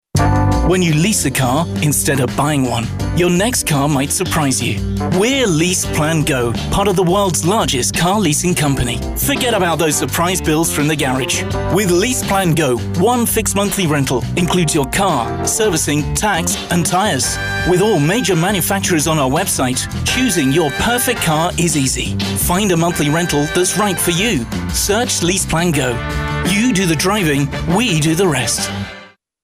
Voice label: broadcast ad; leaseplango

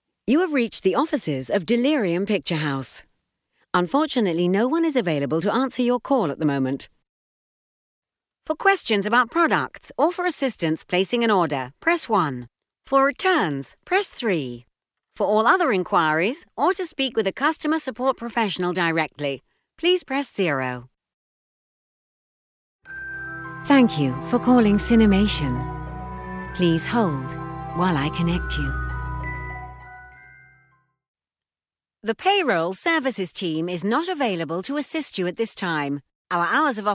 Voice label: Phone, Backing Music Added

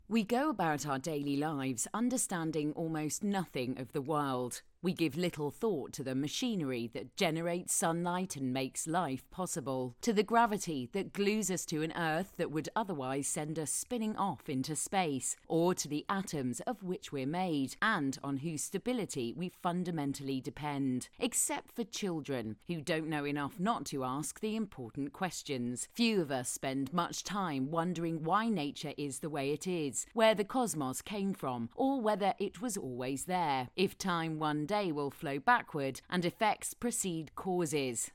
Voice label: Narration serious

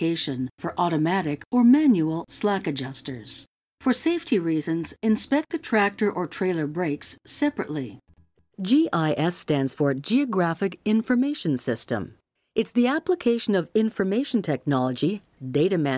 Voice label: Training - E-Learning - no SFX

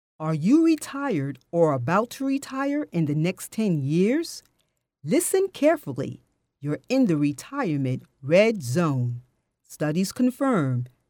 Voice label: Announcement

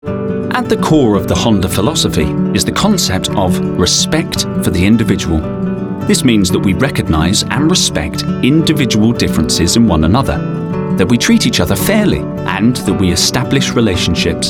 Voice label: Corporate

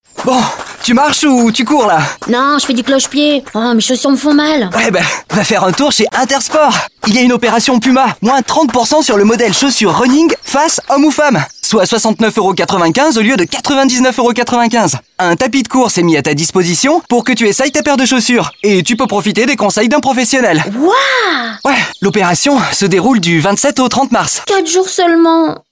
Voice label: RADIO AD COMEDY; intersport

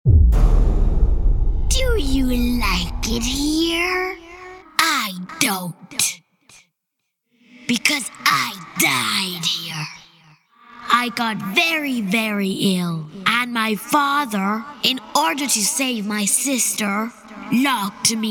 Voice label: SCARY

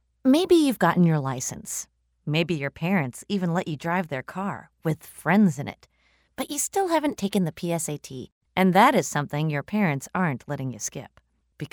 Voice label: Elearning Explainer Video

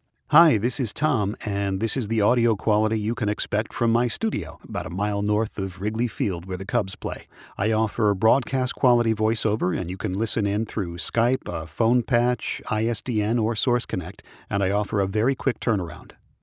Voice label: Studio Quality Raw Audio

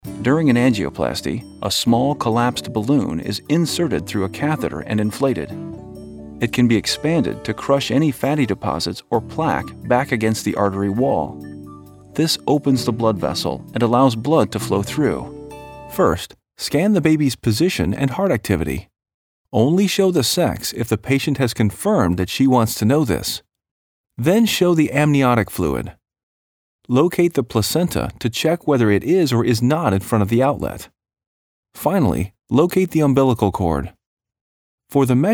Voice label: Medical Narration

